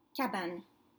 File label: "cabane", French; cabin